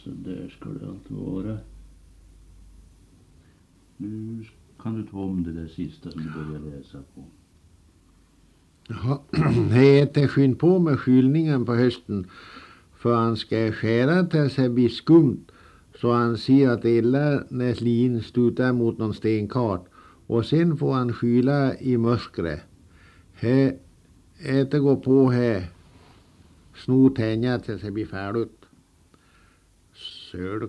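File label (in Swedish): Ovansjö Gästrikland, dialekter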